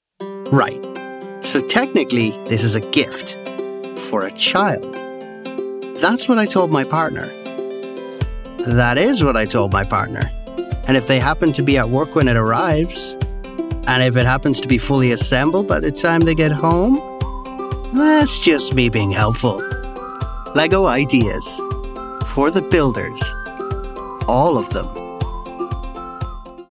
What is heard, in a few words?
Commercial Native Playful Cheeky Enthusiastic